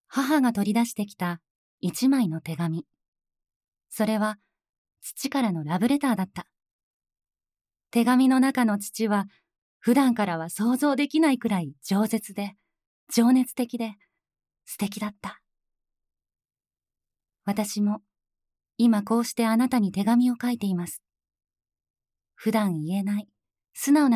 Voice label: ナレーション

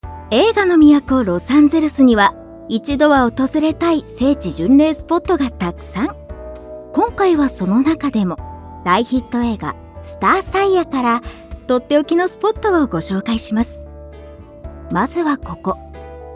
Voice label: ナチュラル BGM付, ナレーション_ナチュラル

